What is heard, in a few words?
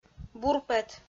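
"бурпӧт", Komi, female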